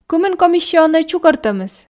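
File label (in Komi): кымын комиссионнӧй чукӧртӧмыс, Komi, female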